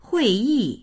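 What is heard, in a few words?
"hui4yi4", Mandarin Chinese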